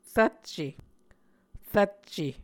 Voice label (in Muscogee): "rvcce", muscogee; A Dictionary of Creek/Muskogee; SIL International; Onvkv Ohhvyayices